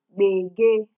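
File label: "gbege", Nigerian Pidgin, female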